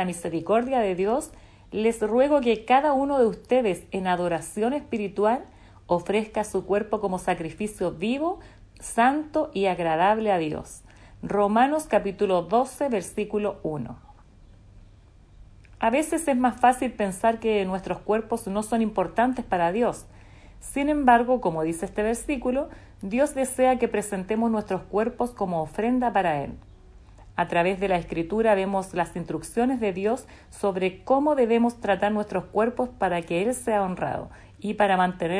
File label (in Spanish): devocional